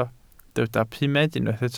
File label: "pumed", welsh